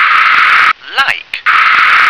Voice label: "like", English